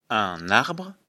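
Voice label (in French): "arbre", French; afrikalan; lecture fr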